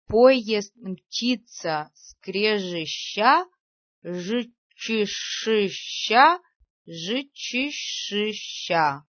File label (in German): zischlaute; skorogovorki